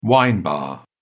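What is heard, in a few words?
"wine bar", British English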